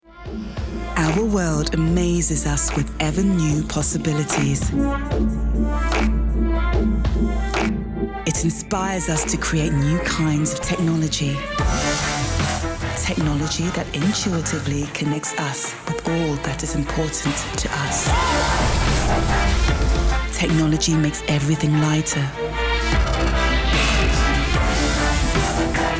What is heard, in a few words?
commercial car advert